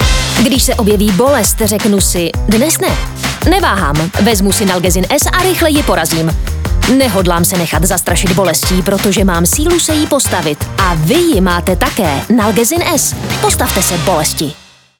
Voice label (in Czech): ukazka hlasu casting